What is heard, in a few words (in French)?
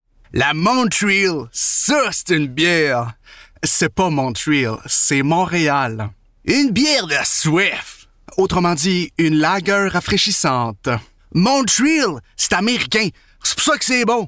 Qc soutenu Voix sympathique comique didactique; Lager Montréal